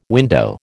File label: "window", American